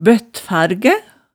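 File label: "bøttfargæ", Hemsedal dialect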